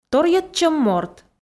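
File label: "торйӧдчӧм морт", Komi, female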